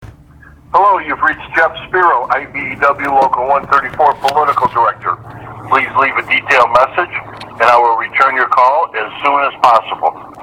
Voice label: Voicemail Greeting